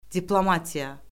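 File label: "diplomatiya", Azerbaijani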